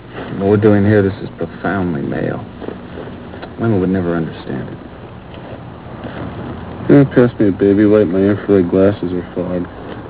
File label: profoundly male